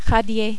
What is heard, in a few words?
"radier", French